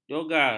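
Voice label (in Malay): "jogar", Malay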